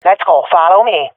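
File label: request follow me, swat female; Counter Strike Model Pack; CS:GO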